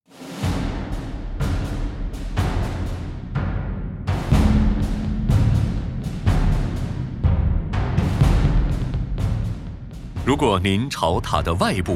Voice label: Crown Jewels Mandarin